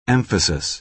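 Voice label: "emphasis", American English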